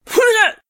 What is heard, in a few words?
Female Constable combat sound; SyrinScape; Missing Magistrate